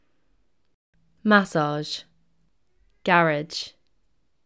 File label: British Massage Garage, pronunciation